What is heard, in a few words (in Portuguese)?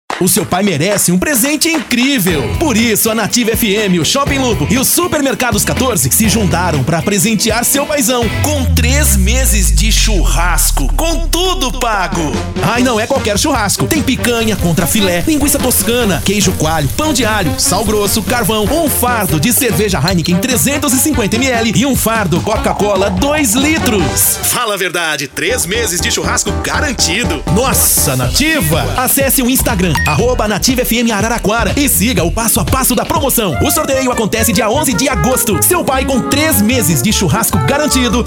Padrão Animada